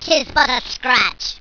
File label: tis but a scratch, pain squeaky